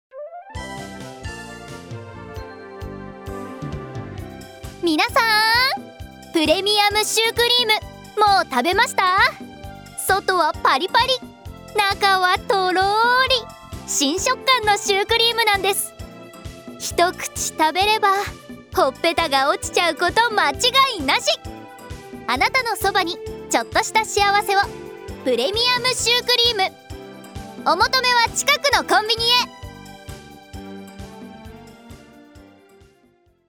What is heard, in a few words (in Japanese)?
ナレーション